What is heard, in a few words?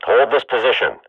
radio bot hold, swat; Counter Strike Model Pack; CS:GO